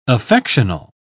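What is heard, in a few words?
"affectional", English, uk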